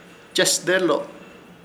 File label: "Chesdelo", Ishir Chamacoco; enojar